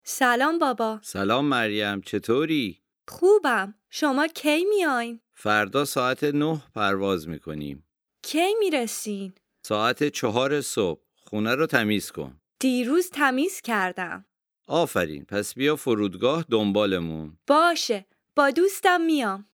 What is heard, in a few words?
Dialogue lesson Farsi